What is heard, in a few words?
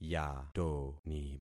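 "yatoni", concatenated syllables; Affixing experiment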